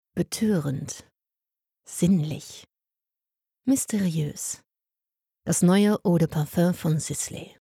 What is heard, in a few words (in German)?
Werbung DE